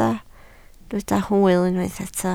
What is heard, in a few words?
"hwyl", Welsh